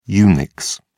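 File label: "unix", English, British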